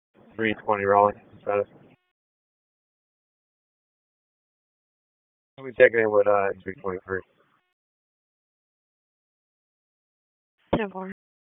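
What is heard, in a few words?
Police Northeast District Dispatch, Raleigh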